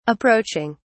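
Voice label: "approaching", British English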